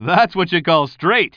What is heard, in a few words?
commentator